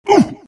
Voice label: pain; silencer

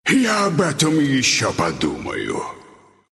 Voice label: bottle, ru; Dota 2

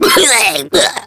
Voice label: pain; Awesomenauts

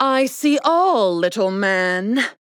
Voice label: Taunt Directed; Paladins; Princess; Strix

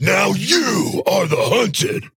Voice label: Taunt Directed; Drogoz Dreadhunter; Cassie; Paladins

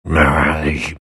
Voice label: anger; Lone Druid

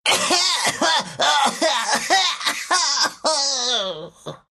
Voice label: death, ru; Gyro

